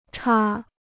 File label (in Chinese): "叉", Chinese